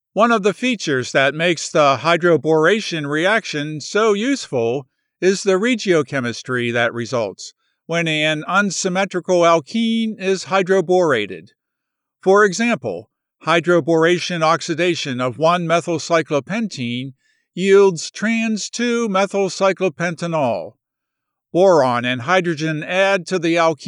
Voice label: eLearning Univ Subject Matter Expert